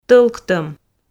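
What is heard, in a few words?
"тӧлктӧм", Komi, female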